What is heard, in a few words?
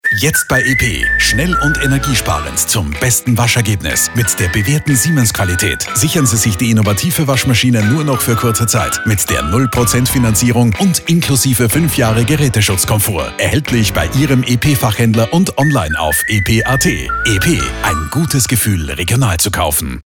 SPOT; Siemens